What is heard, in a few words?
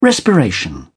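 "respiration", British English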